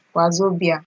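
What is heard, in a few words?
"wazobia", Nigerian Pidgin, female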